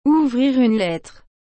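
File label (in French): "ouvrir une lettre", French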